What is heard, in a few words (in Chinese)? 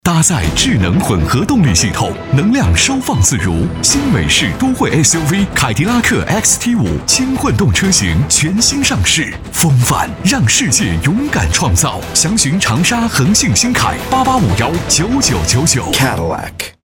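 男 广告（激情震撼）; 凯迪拉克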